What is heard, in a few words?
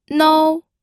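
"nou", first tone, pinyin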